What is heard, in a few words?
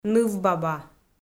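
"нывбаба", Komi-Zyrian, female; audio dict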